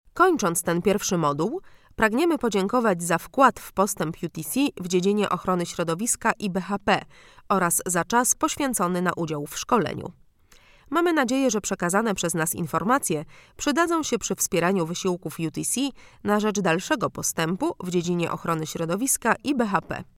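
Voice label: Polish, female, e-learning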